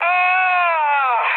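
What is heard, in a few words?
Italian damaged pilot mood med, speech; War Thunder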